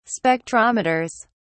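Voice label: "spectrometers", English, British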